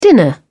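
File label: "dinner", British English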